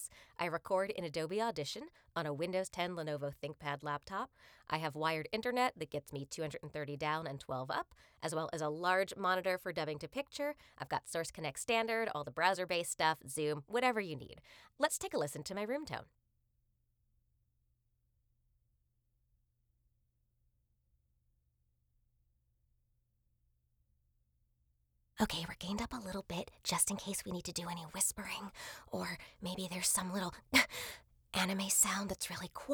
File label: Home Studio Sample